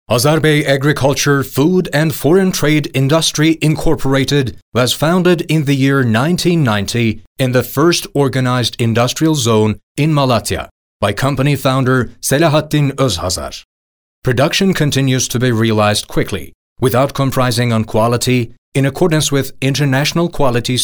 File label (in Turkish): İNGİLİZCE